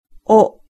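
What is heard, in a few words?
"wo", Japanese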